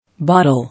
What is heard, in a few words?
"Bottle", English